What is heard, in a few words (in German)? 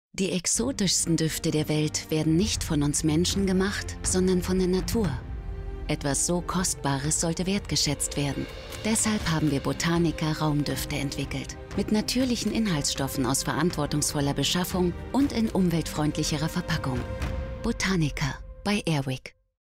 Werbung TV; AIRWICK